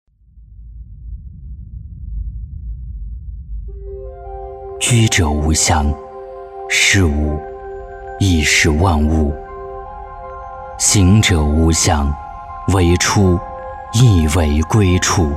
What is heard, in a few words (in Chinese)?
宣传片神秘大气; 道化万千大道至简